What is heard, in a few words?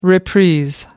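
"reprise", British English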